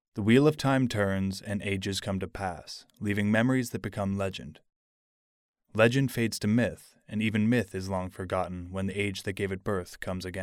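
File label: Canadian English, male, voice over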